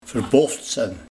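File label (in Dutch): "Verbofd zen", dialect; Teveel hebben van iets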